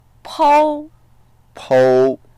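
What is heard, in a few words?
"pou1", Mandarin Chinese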